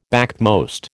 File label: "backmost", American